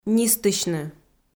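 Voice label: "нистысьны", Komi-Zyrian, female; kpv audio dict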